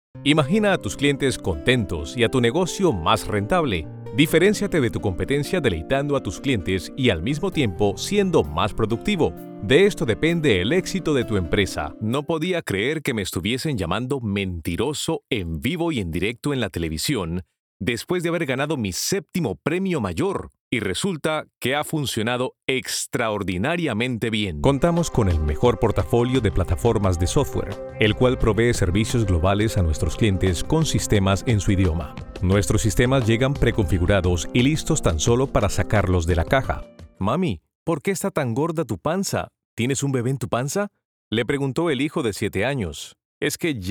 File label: corporate